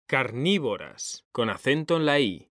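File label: "CARNÍVORAS", Spanish, male; mekanta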